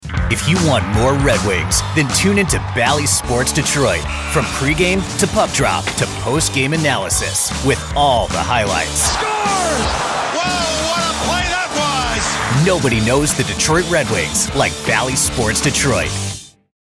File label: Sports Affiliate Gritty Tough Excitement